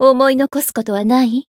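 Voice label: Voice chant, Japanese; toova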